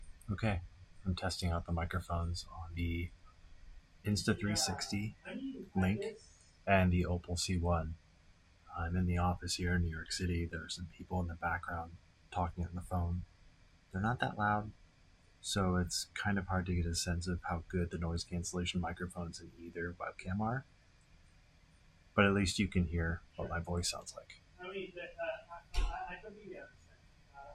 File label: insta360mic